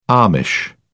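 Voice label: "amish", English, British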